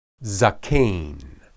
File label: "zaqen", Hebrew